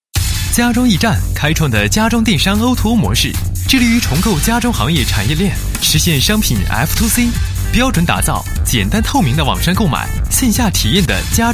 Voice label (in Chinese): 男 宣传广告; 电商 家装e站